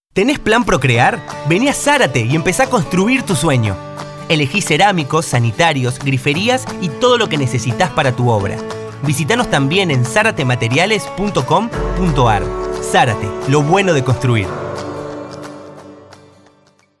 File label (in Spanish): espanol argentina